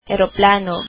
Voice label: "airplane", filipino